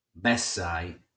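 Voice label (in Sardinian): "Bessai", Sardinian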